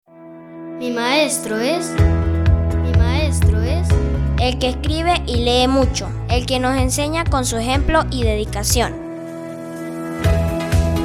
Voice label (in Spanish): Spot Radio; Talento; UETD Táchira